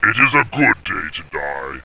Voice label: it is a good day to die, rumbly; tactics